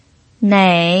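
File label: "nei", third tone, Mandarin Chinese pinyin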